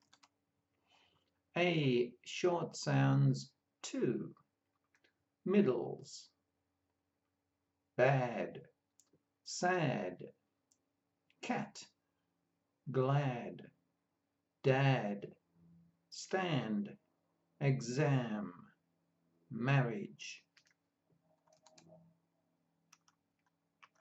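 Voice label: A short sounds, pronunciation of australian english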